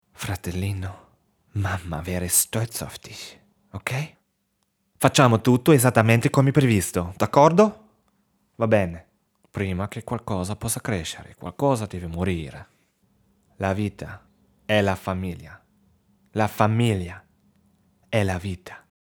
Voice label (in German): italienisch Akzent; LOFT VOICES